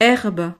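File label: "herbe", French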